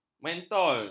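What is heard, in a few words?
"mentol", Malay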